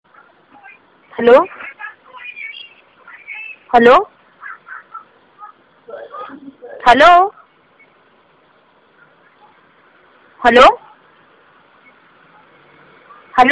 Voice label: hello girl voice bgm